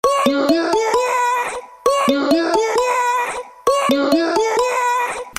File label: WOAH, Google Hangouts